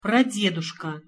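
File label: "pradeduska", Russian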